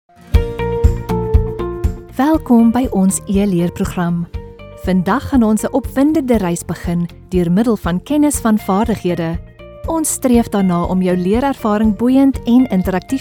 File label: afrikaans e-learning; south african; Synchronsprecher aus Südafrika